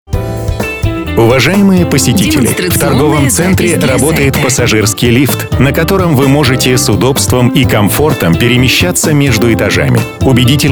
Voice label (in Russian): Информация про лифт, мужской